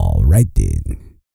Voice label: E SPEECH, Vocal Planet R&B Dance